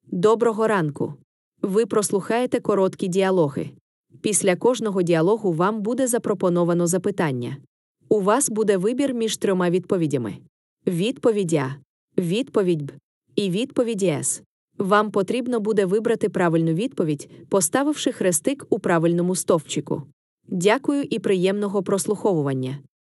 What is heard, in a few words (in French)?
consigne ukrainien; Positionnement-FLE-Bruxelles